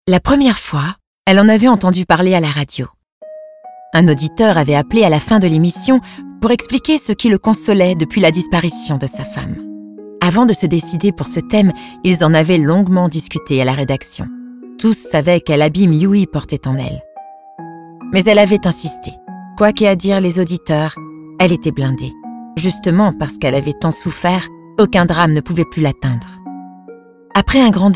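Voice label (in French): E Book, voix off; Ce que nous confions au vent; Bento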